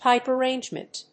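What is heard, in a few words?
"Pipe arrangement", English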